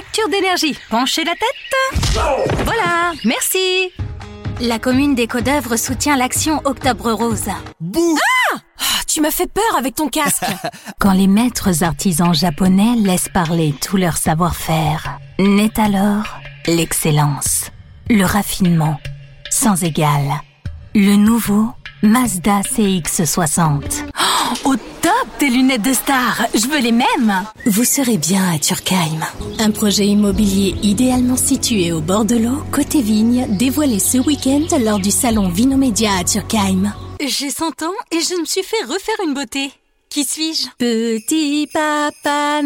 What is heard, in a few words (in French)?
Voix F